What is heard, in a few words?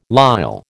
"lisle", American